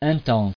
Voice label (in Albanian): "intense", frengjisht